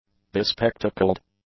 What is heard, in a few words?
"bespectacled", English; adjectives